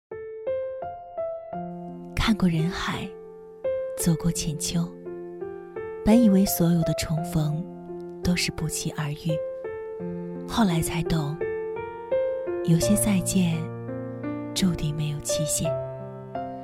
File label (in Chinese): 情感旁白